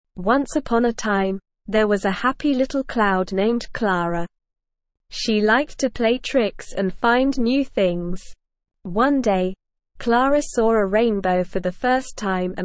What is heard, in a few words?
ESL Short Stories for Kids Beginner SLOW Reading; The Adventures of Clara Cloud